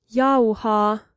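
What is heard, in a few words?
Finnish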